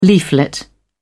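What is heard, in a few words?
"leaflet", English, British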